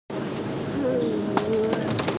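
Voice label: "shi2yue4", Mandarin Chinese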